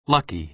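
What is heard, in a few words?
"lucky", American English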